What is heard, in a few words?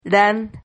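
"ran", Spanish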